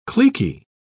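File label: "cliquy", British English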